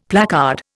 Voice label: "placard", Indian; Dictionary